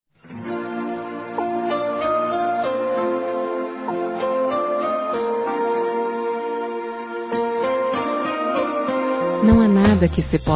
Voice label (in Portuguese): Aniversário Amizade Feminino; Aniversários; Aniversário de Amigos